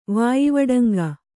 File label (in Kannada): "ವಾಯಿವಡಂಗ", Kannada